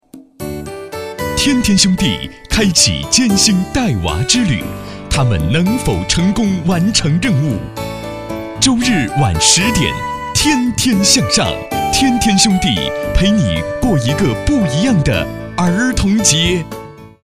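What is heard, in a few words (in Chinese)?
配音预告; 天天向上预告合成版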